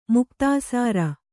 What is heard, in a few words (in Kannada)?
"ಮುಕ್ತಾಸಾರ", Kannada